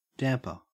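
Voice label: "damper", Australian English; pronunciations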